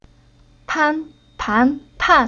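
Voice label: "Pan", Chinese syllable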